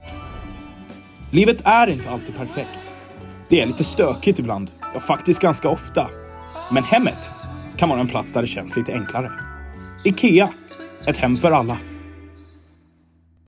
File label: Commercial Swedish